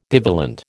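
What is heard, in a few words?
"divalent", American English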